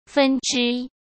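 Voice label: "分支", Mandarin Chinese; chinese-flashcards; hsk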